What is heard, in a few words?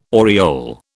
"aureole", British